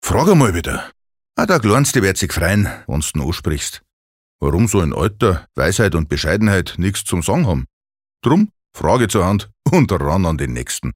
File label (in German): Dialekt Bayerisch